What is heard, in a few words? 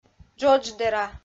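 "джодждӧра", Komi, female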